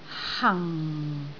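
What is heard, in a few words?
"hang4", Cantonese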